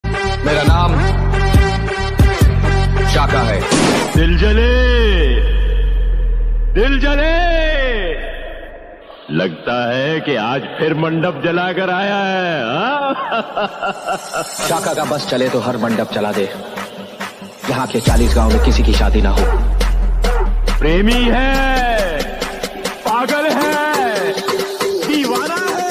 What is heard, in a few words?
Heart Broken Dialogue Ringtone; ringtones; Diljale Movie